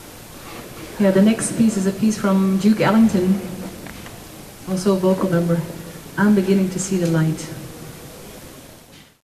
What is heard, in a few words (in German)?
Ansage